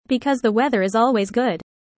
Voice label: fast